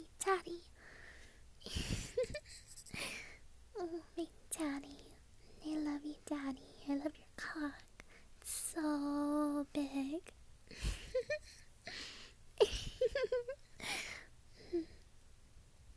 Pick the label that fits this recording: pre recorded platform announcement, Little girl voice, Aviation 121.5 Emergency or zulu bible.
Little girl voice